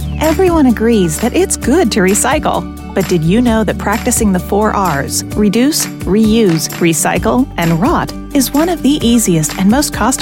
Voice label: Narration